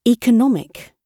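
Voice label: "economic", British English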